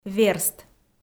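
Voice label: "верст", Komi-Zyrian, female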